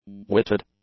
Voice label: "witted", english; adjectives

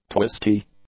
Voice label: "twisty", English; adjectives

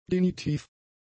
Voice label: "genitiv", German; adjectives

